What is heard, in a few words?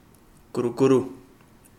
mud, Kapingamarangi